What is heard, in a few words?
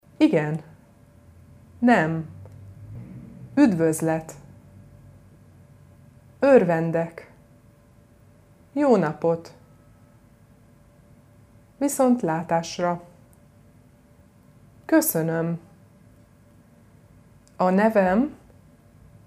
Hungarian